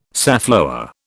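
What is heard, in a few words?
"safflower", British English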